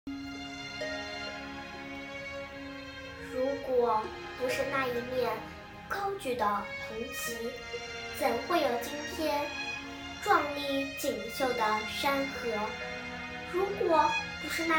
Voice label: 童声诗朗诵

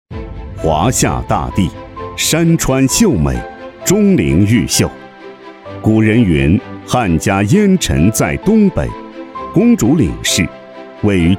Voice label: 稳重大气风格; 东北粮仓

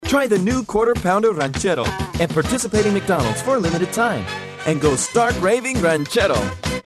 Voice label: 激情活力 广告; 麦当劳; 外籍英语

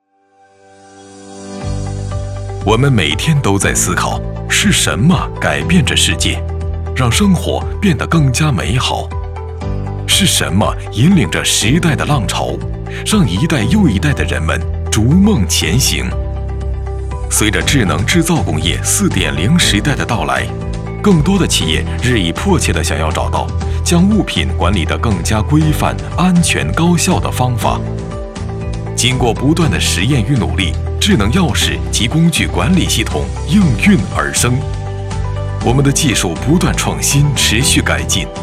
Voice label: 科技 宣传片 轻快 连贯 简介; 智能存储; 软件制造工业

